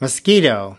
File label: "mosquito", us